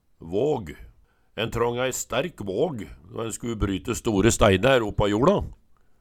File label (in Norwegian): vag, Numedalsmål